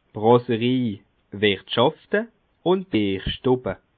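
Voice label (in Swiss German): "brasserie", Bas Rhin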